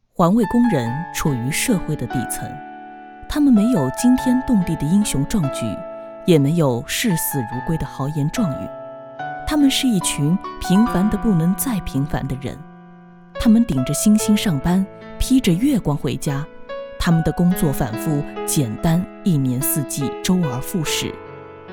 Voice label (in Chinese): 纪录片浑厚大气